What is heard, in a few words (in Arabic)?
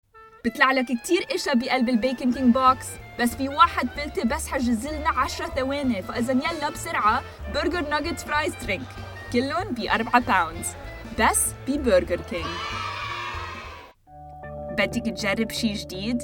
Arabic Language Commercial